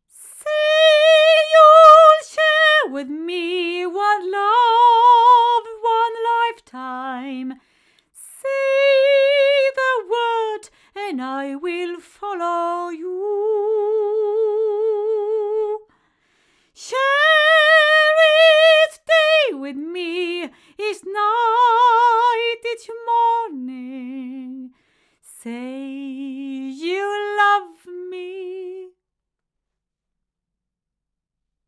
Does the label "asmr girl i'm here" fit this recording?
No